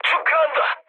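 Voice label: Korean player killed enemy mood med, speech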